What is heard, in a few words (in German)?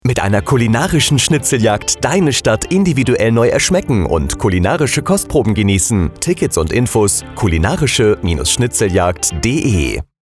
Funkspot; Wiedeking Events GmbH